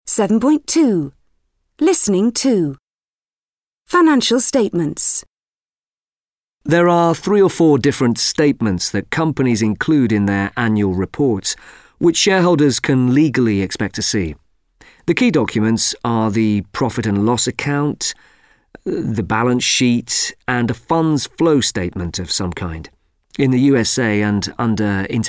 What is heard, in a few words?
English platform, english e-learning platform; VUB